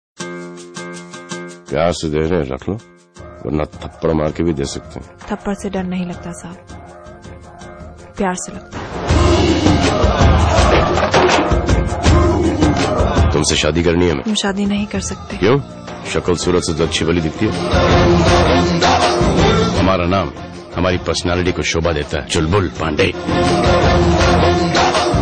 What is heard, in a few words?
Dialog, Dilogues Ringtones; Dabangg Pande